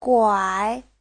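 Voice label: "guǎi", Mandarin Chinese; Giáo trình Hán ngữ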